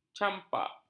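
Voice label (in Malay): "campak", Malay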